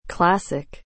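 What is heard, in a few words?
"classic", English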